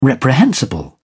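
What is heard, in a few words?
"reprehensible", British English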